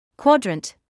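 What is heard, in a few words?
"quadrant", British English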